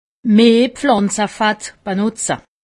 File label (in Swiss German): "pflanzefett", alsace; medecine